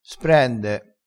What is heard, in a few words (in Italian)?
"sprende", crespinese